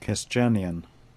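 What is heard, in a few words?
"Kesdjanian", pronunciations; Fourth Way; Beelzebub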